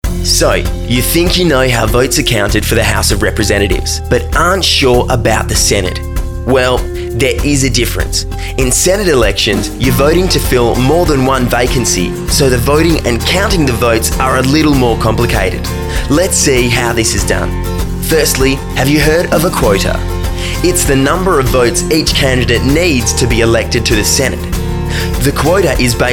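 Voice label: political corporate narration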